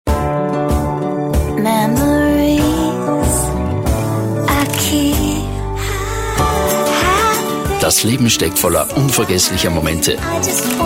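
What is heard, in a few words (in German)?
Austrian, Sanft; Fremdtake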